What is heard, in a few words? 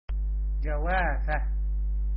Guava in arabic